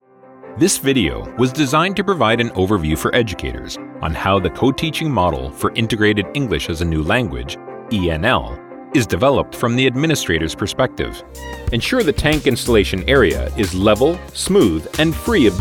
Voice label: Explainer Narration, en-US

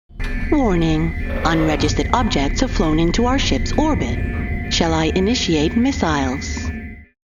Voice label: GAMING American AI

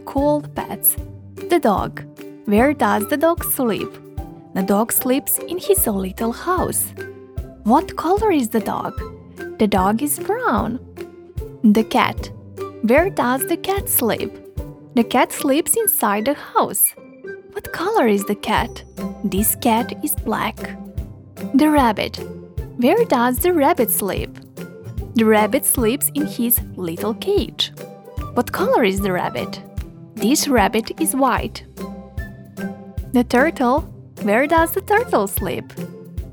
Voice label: Children Learning animals ENG

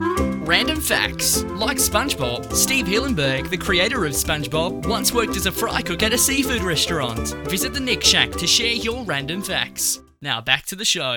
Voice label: AUSTRALIAN; NICKELODEON

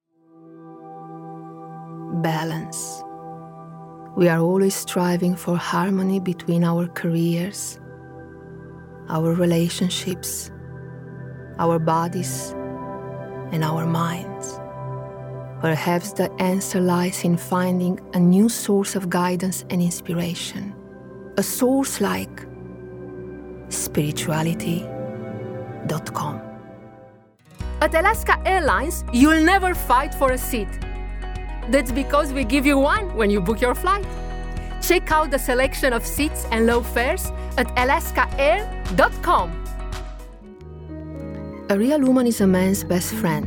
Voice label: Voice Actor; BigMouthVoices

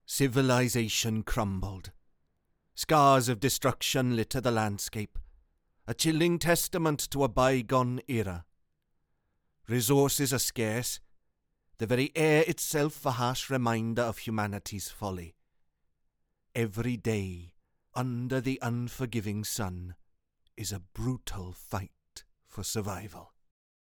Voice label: Welsh

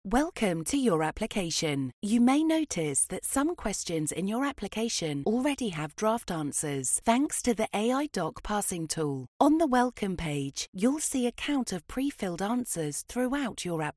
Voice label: E-Learning

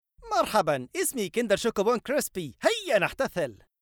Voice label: Character Kinder

